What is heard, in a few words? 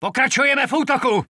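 Czech positive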